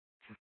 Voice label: Police Dispatch, scanner; Paradise Valley Police